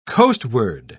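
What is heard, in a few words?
"coastward", British English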